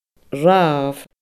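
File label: "ramh", Scottish Gaelic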